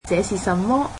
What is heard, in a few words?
cantonese What is this